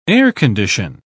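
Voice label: "air-condition", British English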